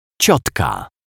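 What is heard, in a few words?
"ciotka", Polish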